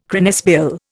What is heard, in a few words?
"cranesbill", Indian